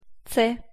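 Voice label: "ц", Russian pronunciation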